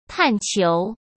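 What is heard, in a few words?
"探求", Mandarin Chinese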